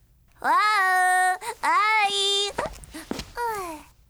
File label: FEMALE Emotion